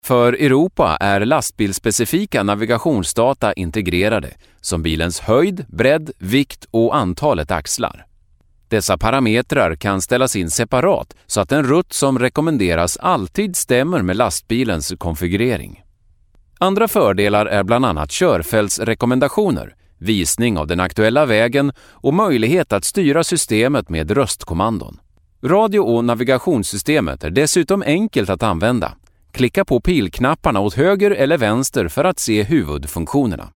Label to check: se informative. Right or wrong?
Right